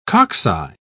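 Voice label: "cocci", British English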